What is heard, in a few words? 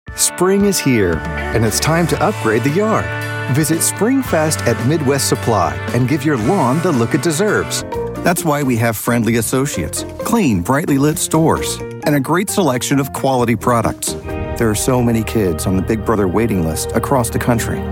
commercial